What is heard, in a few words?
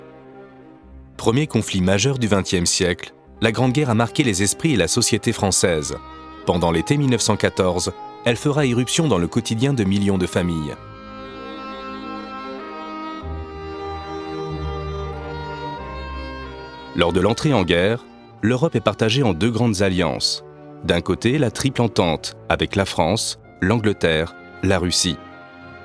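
French, male, narration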